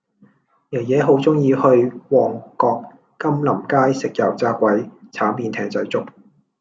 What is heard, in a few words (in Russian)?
Голоса, Гонконгский